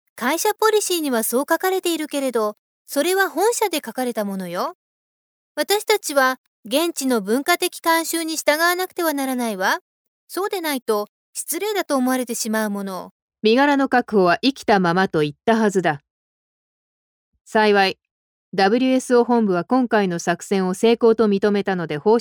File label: female japanese voice over character